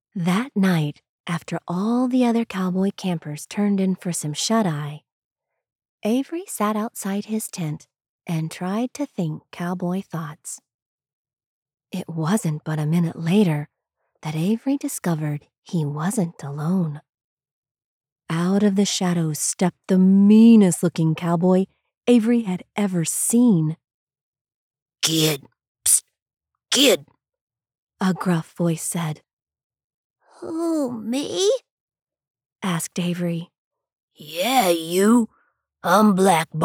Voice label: Children's Fiction Multiple Characters; Animation Demo

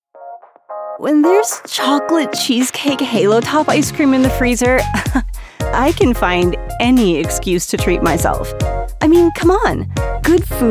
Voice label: Commercial VO; Halo Top

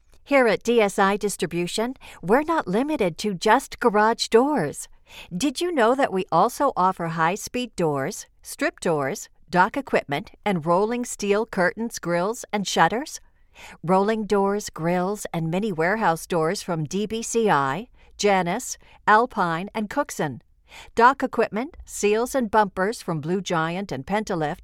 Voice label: Retail MOH

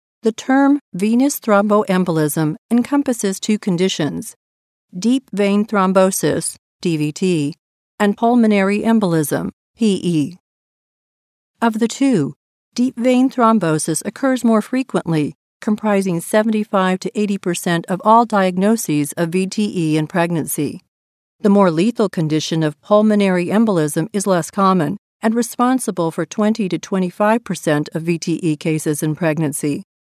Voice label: Med Narration

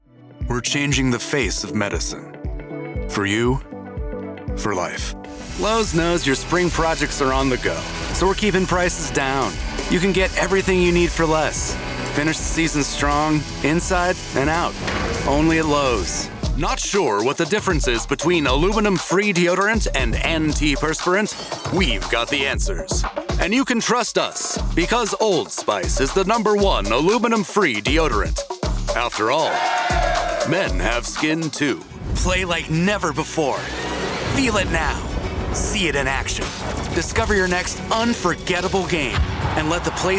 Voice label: Commercial

